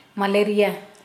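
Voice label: malaria, Kera Mundari, female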